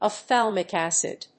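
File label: "ophthalmic acid", English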